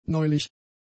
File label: "neulich", German; adjectives